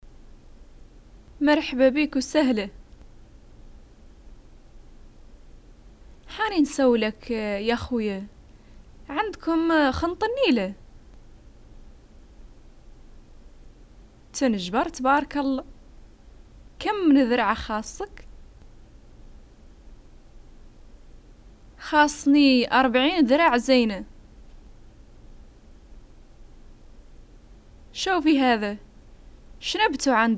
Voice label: bargaining, arabic north african hassaniya dialogues